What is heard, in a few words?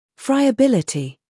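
"humor", British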